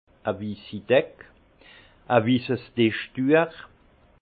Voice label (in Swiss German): "wissi deck", alsace; vin; nappe blanche